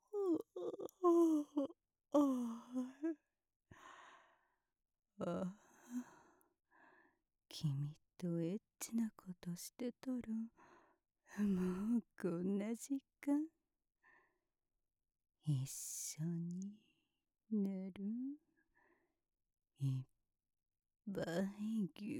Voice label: おやすみボイス, ASMR

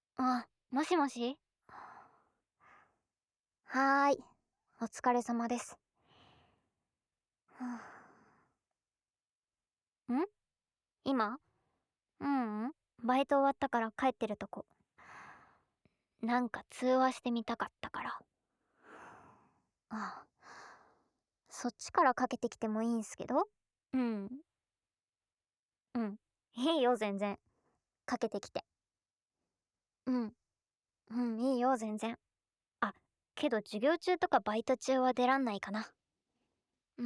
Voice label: 通話; 即おち！; Voice+SNAP; 効果音・液体音無し

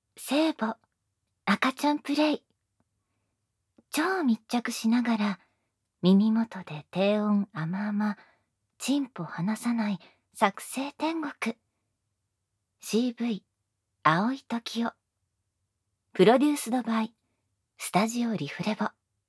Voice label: タイトルコール, ASMR

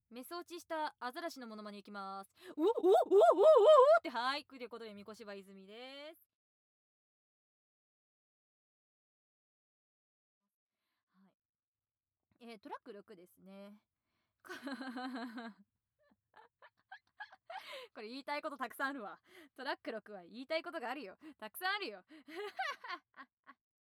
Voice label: フリートーク; 露草 綺麗な露草先輩は下ネタしか言わない

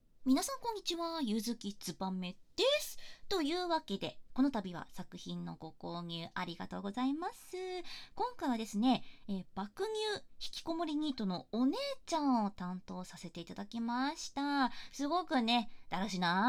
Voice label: ニート姉 フリートーク; ラムネ屋様

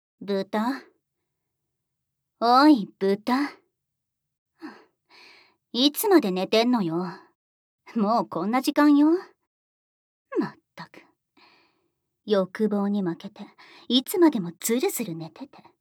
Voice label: 辛辣目覚ましボイス; ミカ

